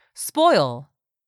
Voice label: "spoil", english; 3000 words